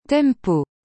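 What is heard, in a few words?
"tempo", French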